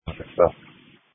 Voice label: Police Dispatch